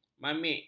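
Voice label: "mamik", Malay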